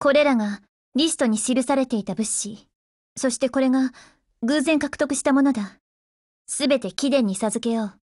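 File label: OPERATION OVER, Japanese, Voice; GF Alarm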